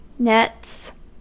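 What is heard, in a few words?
"nets", US English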